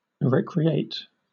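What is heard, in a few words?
"recreate", British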